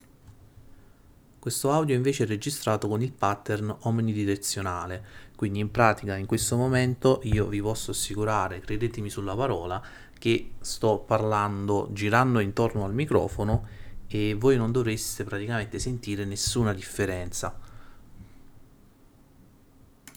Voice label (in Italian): Test con pattern omnidirezionale